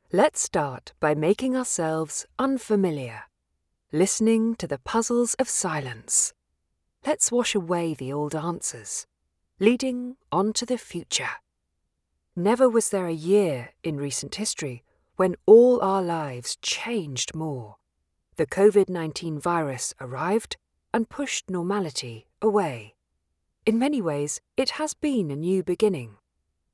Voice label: Studio Sample